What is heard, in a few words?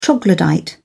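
"troglodyte", British English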